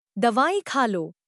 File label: Dawai Kha Lo Female; Ringtone